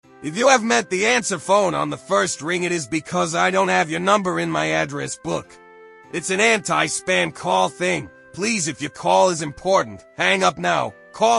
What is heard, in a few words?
answerphone